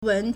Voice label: "wen2", Chinese